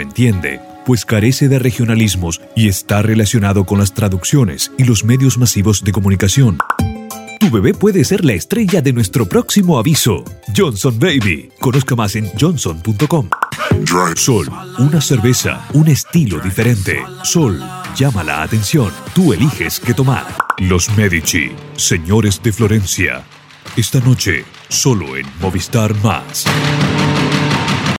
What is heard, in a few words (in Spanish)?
neutro, español neutro